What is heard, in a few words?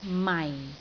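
"mai4", Cantonese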